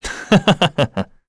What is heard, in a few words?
Happy, kr; Lusikiel; kingsraid; voices heroes